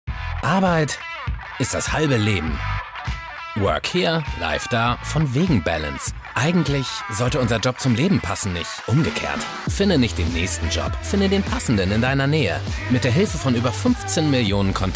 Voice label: TV Spot; XING

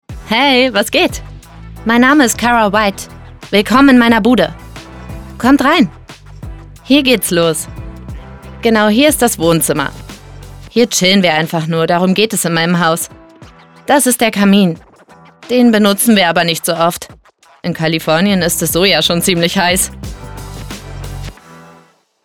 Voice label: VoiceOver; CRIBS

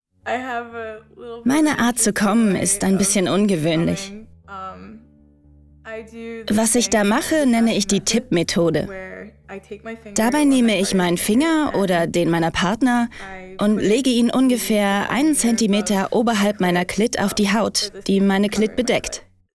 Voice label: German; OMGyes

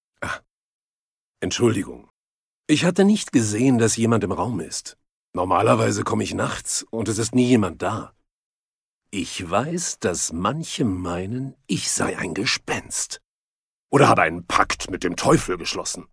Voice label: DOCU BIOGRAPHY HISTORY GERMAN VOICE OVER; Guillem d Areny Plandolit

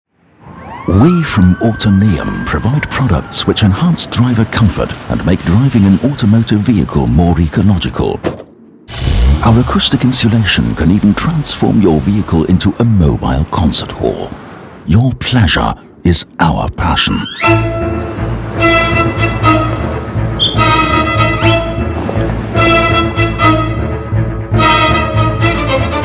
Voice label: Praes engl; Autoneum

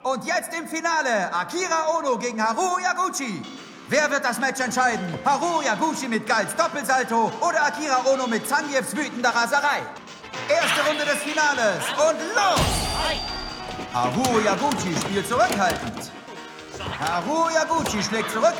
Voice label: Anime; HiScoreGirl

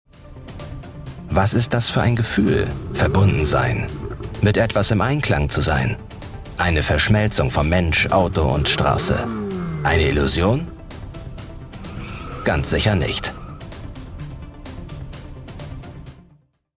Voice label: Voiceover Cool

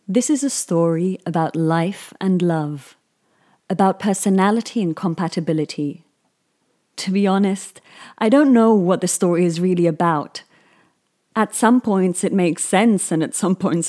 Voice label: Audiobook UK

